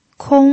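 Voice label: "kong" first tone, pinyin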